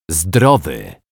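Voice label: "zdrowy", Polish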